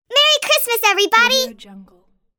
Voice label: cartoon merry christmas everybody